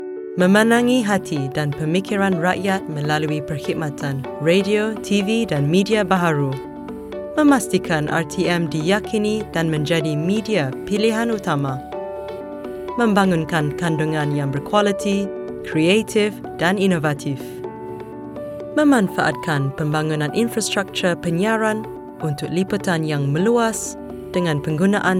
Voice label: Malay